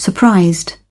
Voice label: surprised